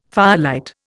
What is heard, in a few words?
"firelight", Indian